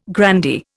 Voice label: "grandee", Indian

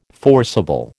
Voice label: "forcible", American

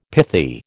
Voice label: "pithy", American

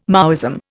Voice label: "Maoism", Indian

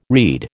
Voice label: "read", American

